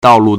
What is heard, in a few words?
"dao4 lu4", Chinese